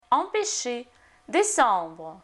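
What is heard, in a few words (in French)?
empecher — décembre, French; Lingvo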